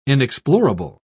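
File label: "inexplorable", British English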